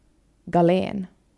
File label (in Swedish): "gallen med accent"